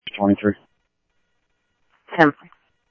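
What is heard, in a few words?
Sheriff West County, scanner audio trunk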